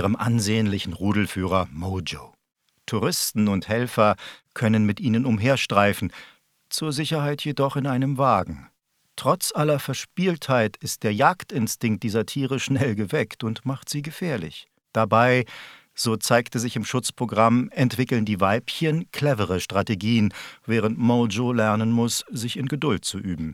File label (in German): Löwen Doku